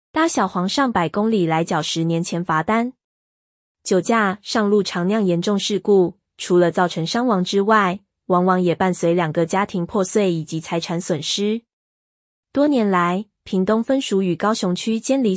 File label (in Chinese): ai語音-女; 搭小黃上百公里來繳10年前罰單